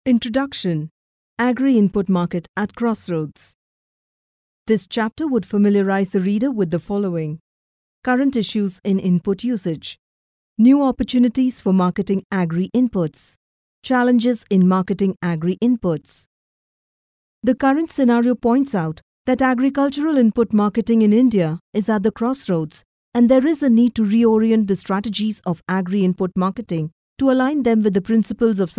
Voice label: elearning eng